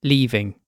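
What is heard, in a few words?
"leaving", British English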